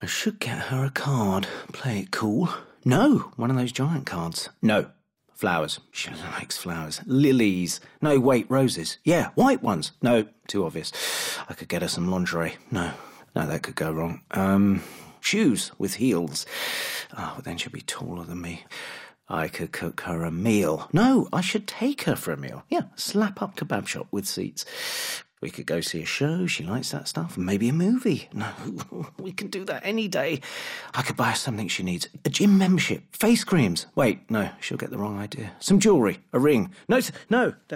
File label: Radio; KitKat; J. Walter Thompson; Moment Marketing